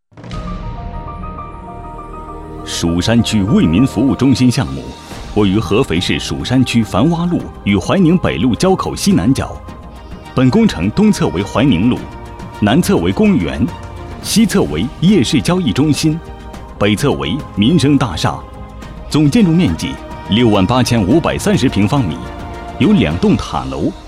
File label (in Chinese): 男 专题 建筑